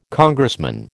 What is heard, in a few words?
"Congressman", American